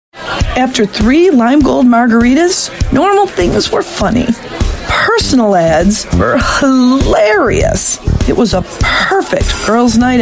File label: US English Narratives, Female